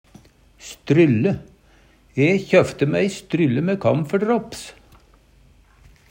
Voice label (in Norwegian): strylle, Numedalsmål; Substantiv hokjønn